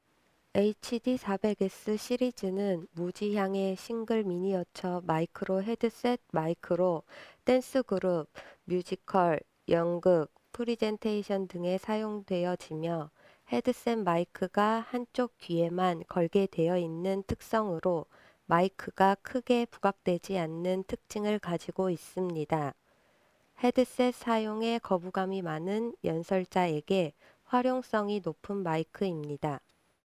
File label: female, wireless microphone; countryman e6; votex; hd400; korea